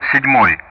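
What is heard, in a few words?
Speech Russian; DCS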